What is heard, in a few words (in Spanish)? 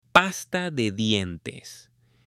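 "pasta de dientes", Spanish, male; UTENSILIOS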